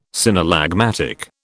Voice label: "synallagmatic", British

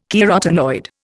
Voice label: "carotenoid", Indian

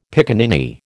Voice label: "piccaninny", American

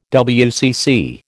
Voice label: "WCC", American

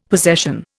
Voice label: "possession", Indian; Dictionary

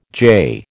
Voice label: "jay", American

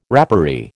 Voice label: "rapparee", American

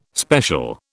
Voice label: "special", British

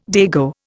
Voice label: "dago", Indian

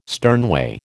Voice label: "sternway", American

